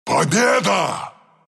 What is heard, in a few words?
win, ru; dota2